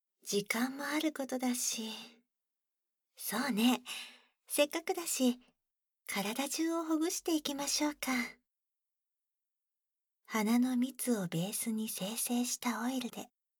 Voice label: 植物の蜜によるハンドマッサージ, バイノーラルマイク 効果音なし

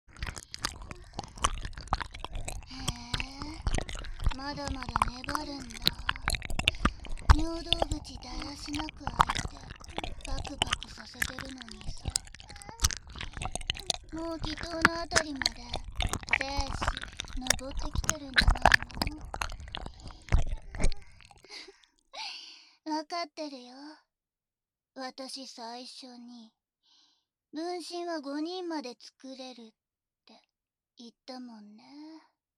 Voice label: 右耳 左耳 言葉責め 口キス, ASMR; アナル／乳首

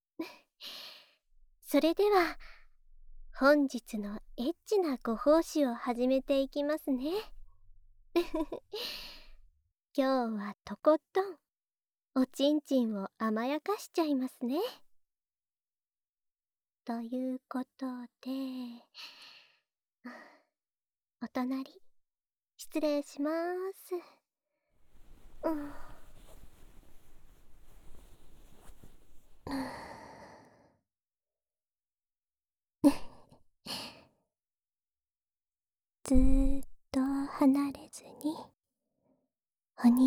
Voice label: 今日は、ママになって、エッチしちゃいまちゅ♪, ASMR

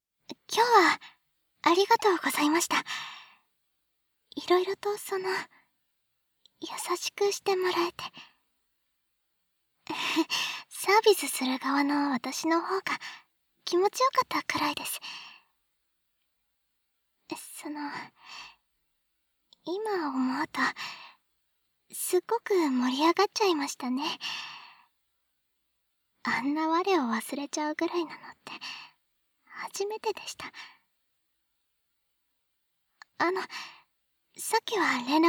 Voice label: お別れの挨拶, ASMR

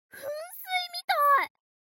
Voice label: mob 女子 台詞

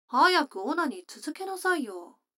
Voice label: 女の子台詞, ASMR

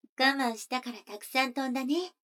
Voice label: 女の子 台詞

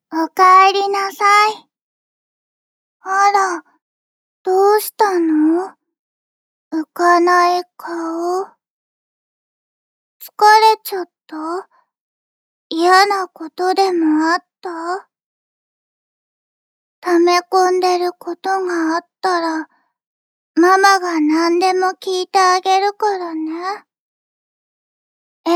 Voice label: おさなママ 甘やかしボイス

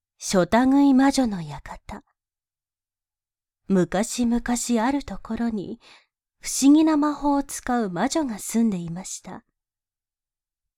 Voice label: ナレーション; 効果音なし

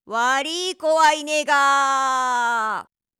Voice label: 悪い子はいねが～, ナレーション

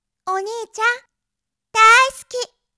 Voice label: おにいちゃん、だ～い好き！, 妹系／ぶりっ子／元気な子

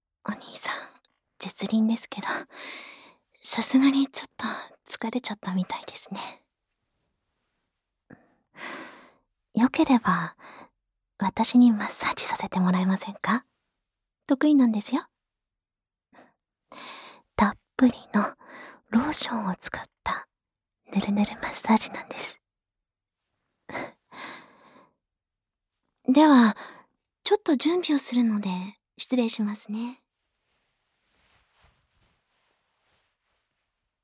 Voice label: ローションマットで特別マッサージ【パイズリフェラ・耳舐め素股】, ASMR

